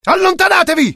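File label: fear grenade, IT; mohaa; dialogue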